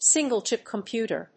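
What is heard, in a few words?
"single chip computer", English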